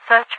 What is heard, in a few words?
"search", radiovoice; Garry's Mod; hl2; overwatch